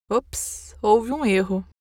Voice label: error page generic conversational, female brazilian portuguese voiceover